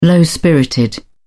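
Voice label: "low-spirited", British English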